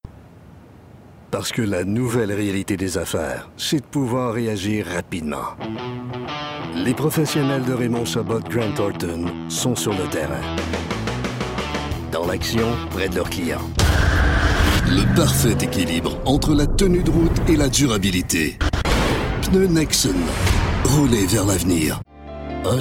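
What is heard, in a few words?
French Canadian M Commercial, male; voice over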